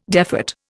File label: "defat", Indian